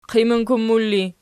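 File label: "qiminkummul" ergative, Archi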